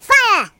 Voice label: "Fire", voices; hedgewars